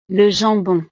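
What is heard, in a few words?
"le jambon", French; desayuno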